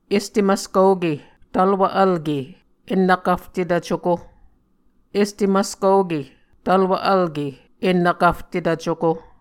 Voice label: "este maskoke tvlwv vlke ennvkvtetv cuko", Muscogee; Este Maskoke Tvlwv-Vlke Ennvkvftetv-Cuko; Mvskoke Words with Voicing Consonants; Onvkv Ohhvyayices; A Dictionary of Creek/Muskogee; SIL International